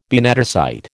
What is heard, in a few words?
"benedicite", American